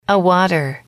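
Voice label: "a water", English, female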